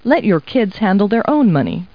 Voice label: people, kid